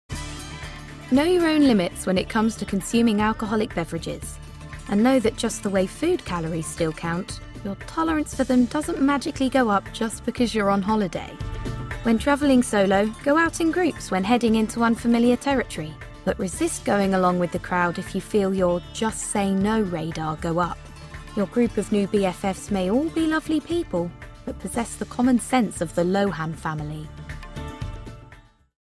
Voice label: English British promos